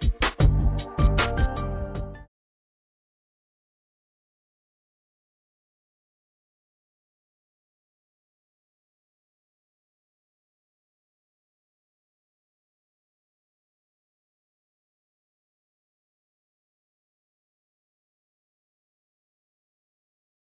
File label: lani prison narrative, voiceover